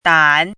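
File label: "dan" third tone, pinyin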